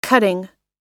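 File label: "cutting", US English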